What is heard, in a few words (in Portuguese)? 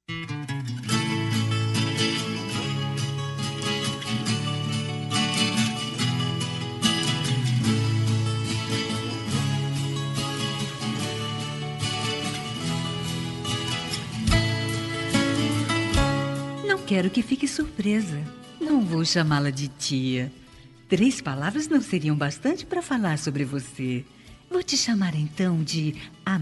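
tia feminina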